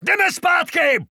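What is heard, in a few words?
Czech retreat; 整队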